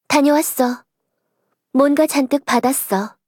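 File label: voice, Korean; Eve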